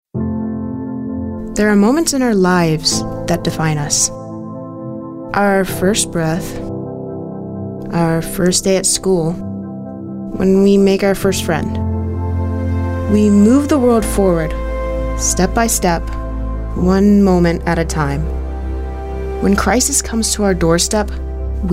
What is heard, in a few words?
thoughtful